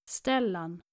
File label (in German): "stellan", nordische jungennamen